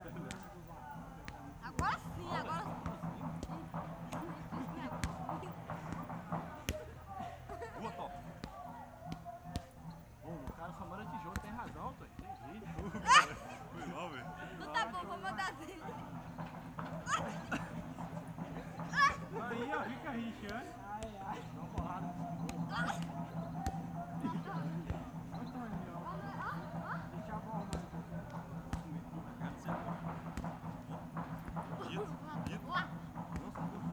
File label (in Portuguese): Futebol homens e menino conversando e batendo bola com musica, morcego; cachorro lo; Cerrado